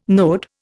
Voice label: "node", Indian

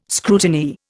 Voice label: "scrutineer", Indian

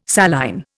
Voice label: "saline", Indian

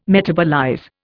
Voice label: "metabolize", Indian

